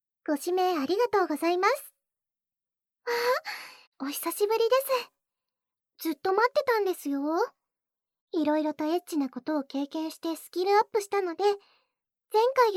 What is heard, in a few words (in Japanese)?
マゾ向け乳首絶頂コース, ASMR